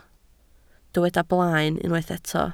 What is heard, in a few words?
"blaen", Welsh